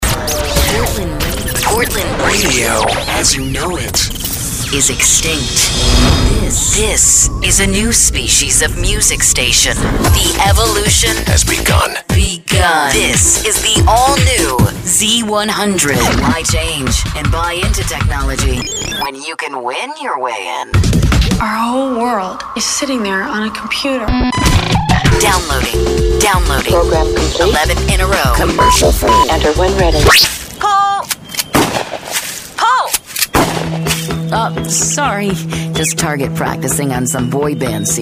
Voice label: imaging, female voice talent